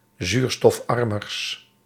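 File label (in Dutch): "zuurstofarmers", Dutch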